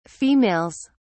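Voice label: "females", British English, dictionary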